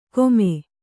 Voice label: "ಕೊಮೆ", Kannada

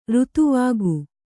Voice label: "ಋತುವಾಗು", kannada

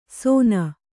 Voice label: "ಸೋನ", Kannada

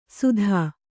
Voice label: "ಸುಧ", Kannada

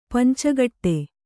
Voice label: "ಪಂಚಗೌಡ", Kannada

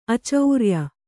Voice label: "ಆಚಾರಿಯ", Kannada

